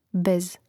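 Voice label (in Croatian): "bez", Hrvatski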